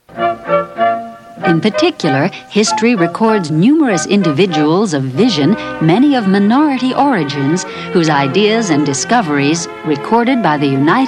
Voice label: voiceover narration, women